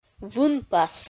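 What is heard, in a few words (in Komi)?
"вынпас", Komi-Zyrian, female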